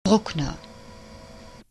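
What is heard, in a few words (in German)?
"Bruckner", German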